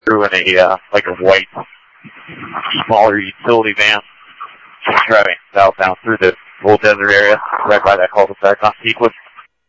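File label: Simulcast police department, scanner audio trunk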